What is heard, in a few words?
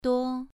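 "duo" Mandarin, second tone, pronunciation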